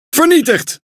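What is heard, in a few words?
NL Speech Pilots Fighter Destroy, Dutch; World Of Warships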